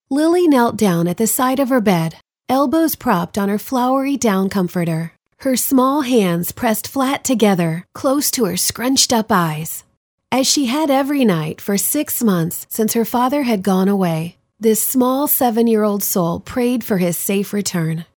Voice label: Narration; Gilberts Fables